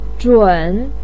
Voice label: "zhǔn", voice pinyin